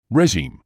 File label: "regime", English